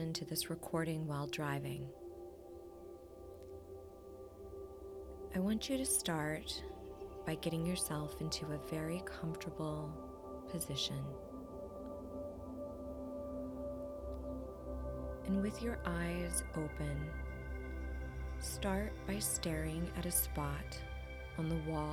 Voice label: Hypnosis Meditation on Anxiety Fear